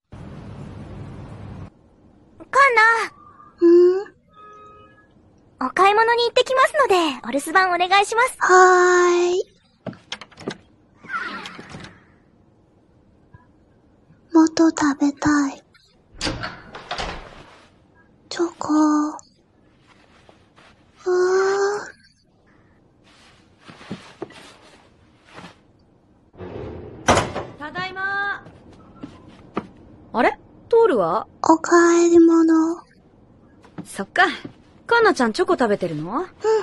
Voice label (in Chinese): 角色扮演; 康纳